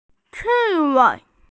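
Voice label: "hedgehog", Mandarin; ci4wei5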